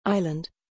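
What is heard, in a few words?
"island", English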